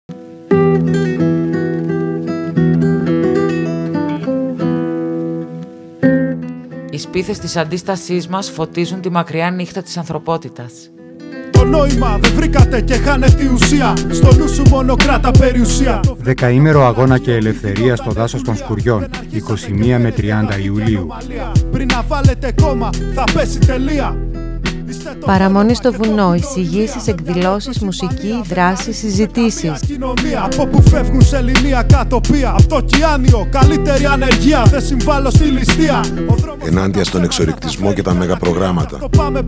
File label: SPOT RADIO